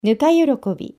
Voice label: "nukayorokobi", Japanese